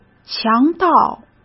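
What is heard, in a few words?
"强盗", Mandarin Chinese; hsk; chinese-flashcards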